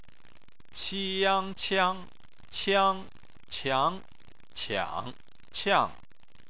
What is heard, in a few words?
"qiang", chinese